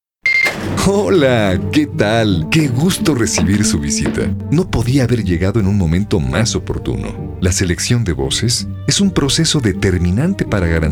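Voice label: Spanish, off, male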